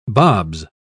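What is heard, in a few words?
"bobs", British English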